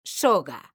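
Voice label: "soga", Spanish, female; mekanta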